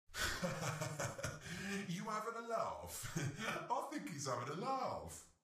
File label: beat pirate voice; goldentavern